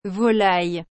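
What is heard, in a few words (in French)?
"volaille", French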